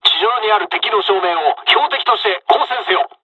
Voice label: ground attack, dialogs japanese; War Thunder; midway

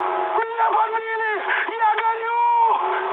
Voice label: on fire, mood high, speech ru; War Thunder

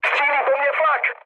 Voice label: damaged aa, speech cze, mood low; War Thunder

